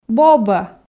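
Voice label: "бобӧ", Komi, female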